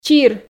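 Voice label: "чир", Komi, female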